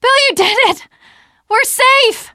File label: teengirl, voice; c6 dlc3 bill dies